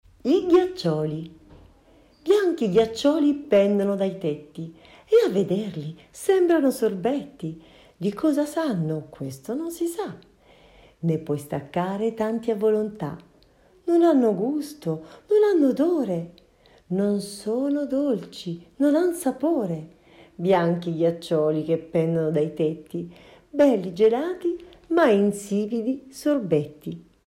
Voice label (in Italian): filastrocca